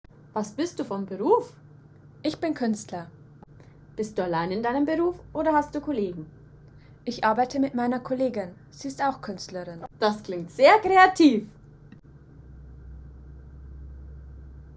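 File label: Dialogue, German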